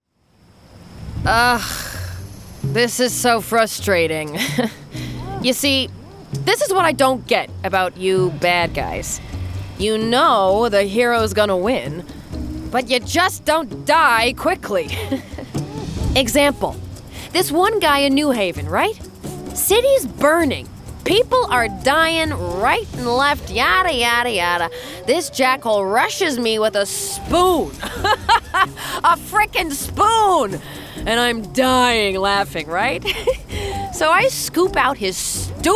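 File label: female English USA videogame compilation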